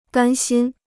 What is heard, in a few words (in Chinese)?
"甘心", Chinese